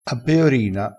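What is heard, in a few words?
"a peorina", Italian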